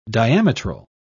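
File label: "diametral", British English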